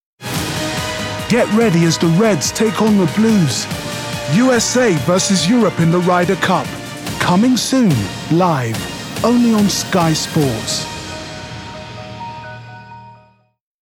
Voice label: Sports Promo; Sky Sports